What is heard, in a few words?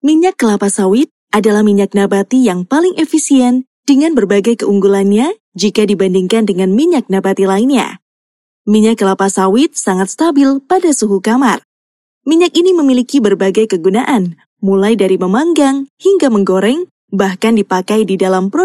Formal Indo, voiceover